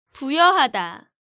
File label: "Buyeohada", Korean